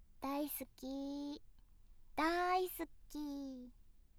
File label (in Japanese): だい好きだーい ス キ, ASMR; 大好きづくし